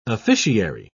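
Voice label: "officiary", British English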